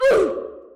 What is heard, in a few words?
oof with reverb; roblox